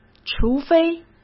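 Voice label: "除非", Mandarin Chinese; chinese-flashcards; hsk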